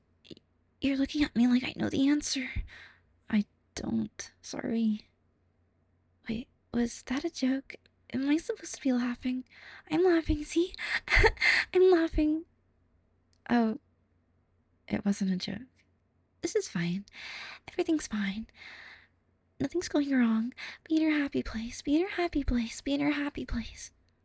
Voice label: Soft Spoken Nervous